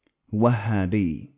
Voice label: Arabic Wahhabi